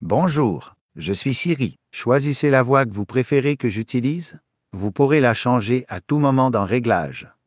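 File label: Canadian French; iOS 26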